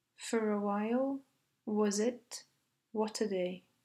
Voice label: final consonant initial vowel fast